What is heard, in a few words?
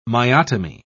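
"myotomy", British English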